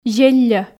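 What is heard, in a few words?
"зелльӧ", Komi, female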